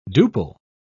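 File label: "duple", British English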